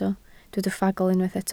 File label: "ffagl", Welsh